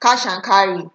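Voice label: "cashancarry", Nigerian Pidgin, female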